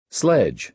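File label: "sledge", American English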